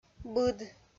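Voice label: "быд", Komi-Zyrian, female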